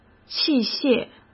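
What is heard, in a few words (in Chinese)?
"器械", Mandarin Chinese; chinese flashcards; hsk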